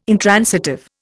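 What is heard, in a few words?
"intransitive", Indian English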